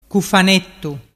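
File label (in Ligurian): "cufanettu", Ligurian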